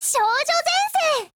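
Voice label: Japanese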